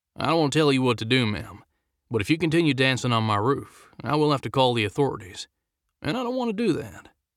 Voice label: Texas, southern us